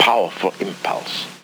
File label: LoFi Phrases, LoFi Vocals; Vengeance Samples